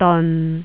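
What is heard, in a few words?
"deon6", Jyutping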